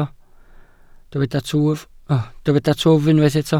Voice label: "twf", Welsh